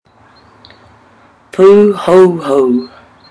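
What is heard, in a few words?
"puhouhou", Māori; kea life cycle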